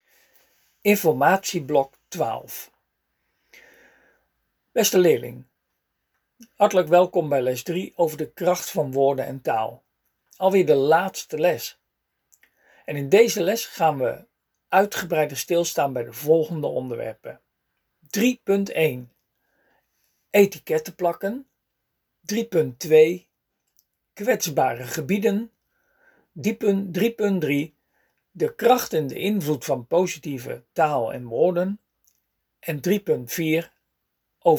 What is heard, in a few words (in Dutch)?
spraak instructie